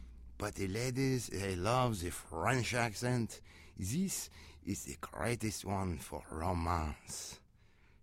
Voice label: French